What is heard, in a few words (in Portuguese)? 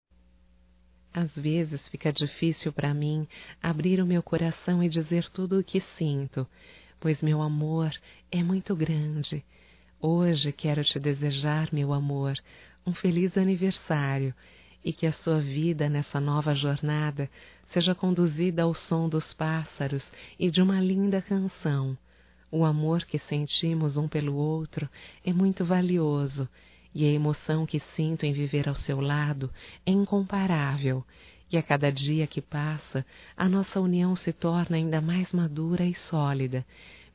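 romântico female, Telemensagens Aniversarios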